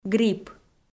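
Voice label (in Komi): "грипп", Komi, female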